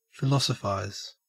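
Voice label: "philosophise", Australian English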